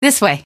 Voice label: follow, female; hl2; coast; odessa; Source engine